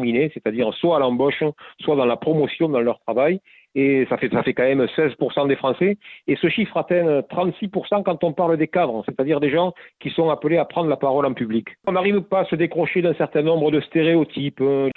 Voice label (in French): accent marseillais